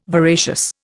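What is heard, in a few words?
"voracious", Indian English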